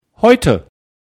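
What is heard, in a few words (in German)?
"heute", German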